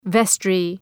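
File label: "vestry", English word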